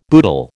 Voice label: "boodle", American

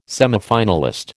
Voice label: "semifinalist", American

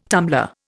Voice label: "tumbler", Indian

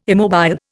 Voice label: "immobile", Indian

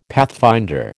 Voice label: "pathfinder", American

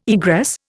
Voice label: "egress", Indian

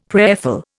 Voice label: "prayerful", Indian; Dictionary

